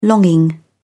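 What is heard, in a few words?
"longing", British English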